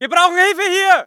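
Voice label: voice german infantry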